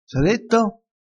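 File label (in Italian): "s ha detto", vocabolario dialettale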